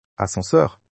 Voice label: elevator in french

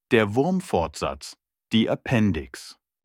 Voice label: appendix in german

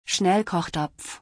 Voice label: Pressure cooker in German

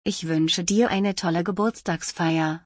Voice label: Have a great birthday in German; تولدت مبارک به آلمانی

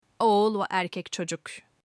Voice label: boy in turkish

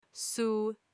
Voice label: water in turkish

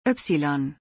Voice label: The letter Y in German

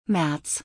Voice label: March in German

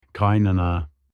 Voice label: mother in law in turkish

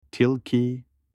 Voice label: fox in turkish

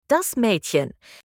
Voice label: girl in german

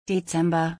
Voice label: December in German

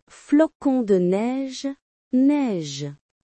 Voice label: Snowflake in french

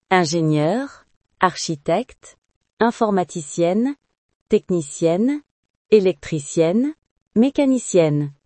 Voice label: job in french

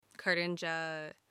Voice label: ant in turkish

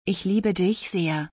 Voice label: I love you very much in German

